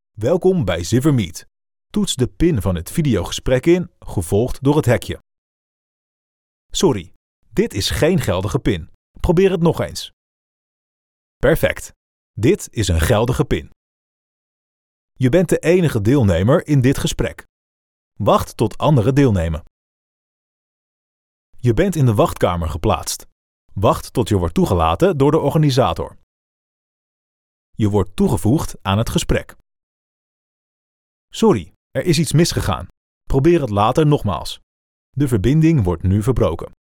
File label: Voice Response NL